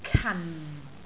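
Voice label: "kan4", Cantonese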